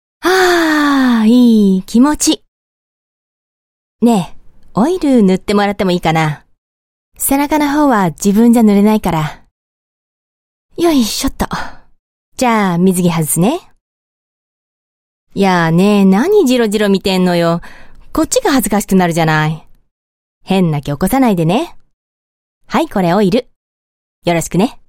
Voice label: Japanese Female